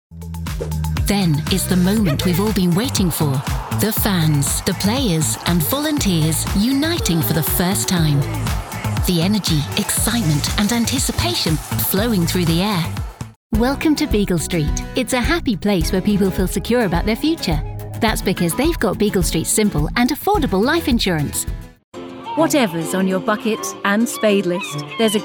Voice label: english british commercial; highly experienced British voiceover artist with an approachab